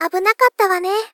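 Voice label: Gentle, Victory, "Abuna katta wane", Japanese female; Rei Yumesaki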